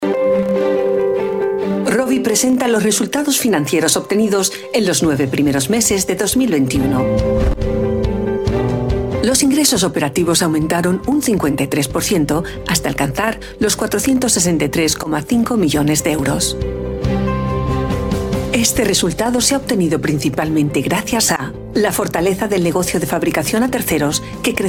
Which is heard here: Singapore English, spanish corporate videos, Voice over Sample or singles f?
spanish corporate videos